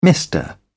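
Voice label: "mister", British English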